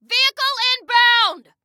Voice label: Warn Vehicle, Female